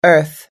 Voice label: "earth", us english